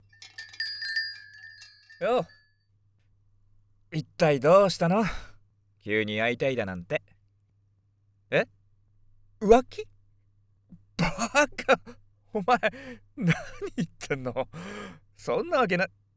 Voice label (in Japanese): セリフ, 青年; 「彼女に呼び出されて」